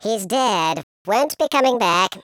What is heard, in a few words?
fatality, speech, Patronising